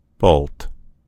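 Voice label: "bolt", American English; gcompris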